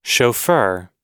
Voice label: "chauffeur", us